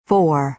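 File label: "four", American English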